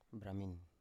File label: "bramin", Biak